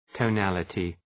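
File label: "tonality", English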